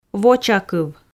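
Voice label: "вочакыв", Komi, female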